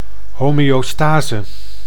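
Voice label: "homeostase", Dutch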